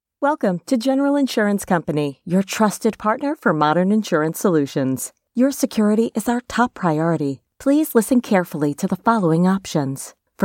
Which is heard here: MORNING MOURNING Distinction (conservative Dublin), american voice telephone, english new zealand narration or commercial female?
american voice telephone